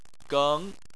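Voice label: "geng" second tone, Chinese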